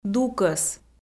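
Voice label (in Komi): "дукӧс", Komi, female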